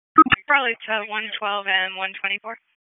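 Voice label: Police Southwest District Dispatch; Raleigh; wake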